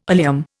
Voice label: "oleum", Indian

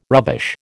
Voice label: "rubbish", American

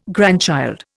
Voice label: "grandchild", Indian

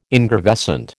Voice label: "ingravescent", American

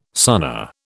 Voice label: "Sunna", British

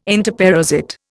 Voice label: "entoparasite", Indian

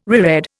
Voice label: "reread", Indian

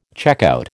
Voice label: "checkout", American

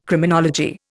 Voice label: "Criminology", Indian

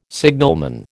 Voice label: "signalman", American

